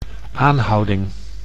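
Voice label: "aanhouding", Dutch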